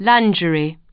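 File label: "lingerie", British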